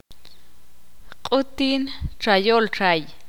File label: ixil; los mart n pescador